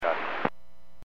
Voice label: Aviation 122.7; KSTE